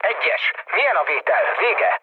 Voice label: hun chat, speech hun; War Thunder